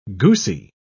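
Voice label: "goosey", British English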